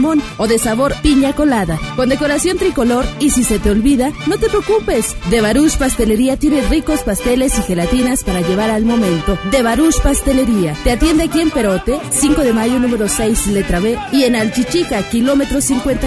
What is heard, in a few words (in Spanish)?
locutora mexicana; Locutores de México